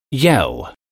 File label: yell